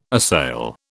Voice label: "assail", British

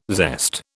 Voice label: "zest", British

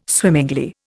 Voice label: "swimmingly", Indian

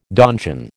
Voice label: "donjon", American

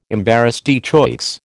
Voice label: "embarras de choix", American

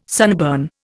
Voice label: "sunburn", Indian

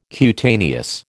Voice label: "cutaneous", American

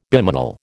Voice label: "bimanal", American

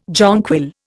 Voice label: "jonquil", Indian

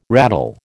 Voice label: "rattle", American

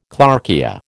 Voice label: "clarkia", American

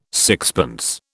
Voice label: "sixpence", British

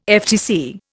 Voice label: "FTC", Indian

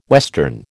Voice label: "western", American

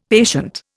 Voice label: "patient", Indian; Dictionary

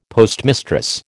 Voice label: "postmistress", American

